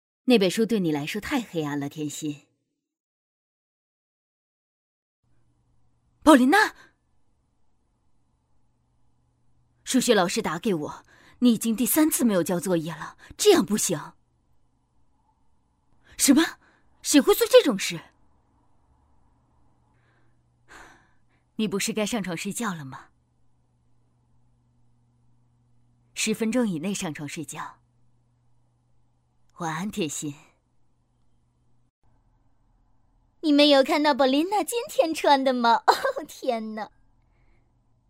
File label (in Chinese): 女-电影译制; 妈妈+同学; 宝琳娜